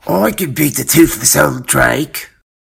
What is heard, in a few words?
orc boy